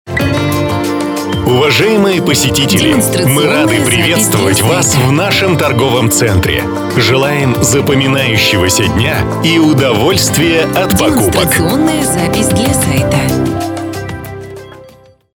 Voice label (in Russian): Ролик открытия торгового центра мужской